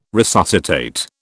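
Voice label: "resuscitate", British English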